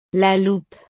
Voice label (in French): "la loupe", frances; utiles escolares